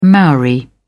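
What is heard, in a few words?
"maori", English, British English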